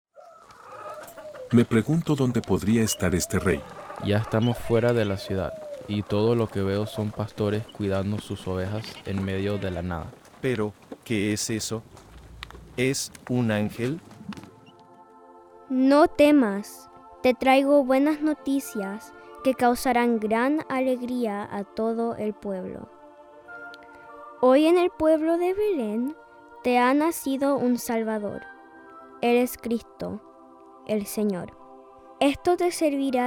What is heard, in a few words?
Scene Spanish, nativity